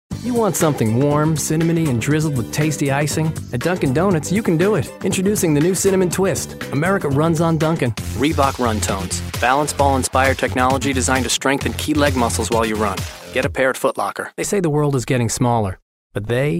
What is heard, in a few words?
Voiceover Commercial Men; New York